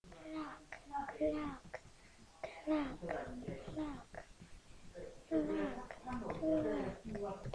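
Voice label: "clock", spoken word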